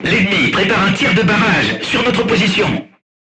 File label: voice message art shooting warning, crew tanks french